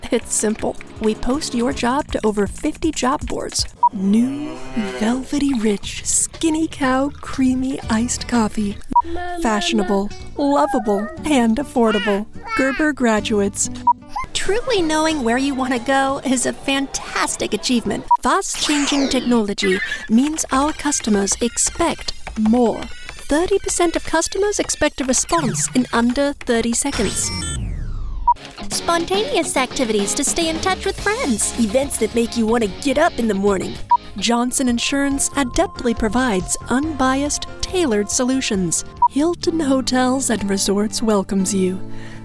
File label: VO Commercial